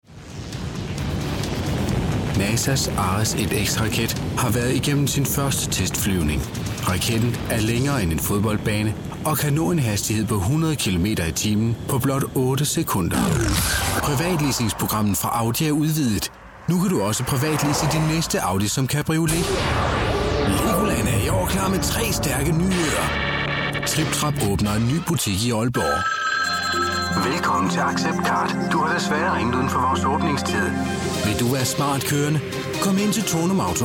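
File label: danish male narration, voice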